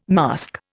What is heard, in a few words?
"masque", Indian